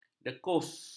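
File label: "dekus", Malay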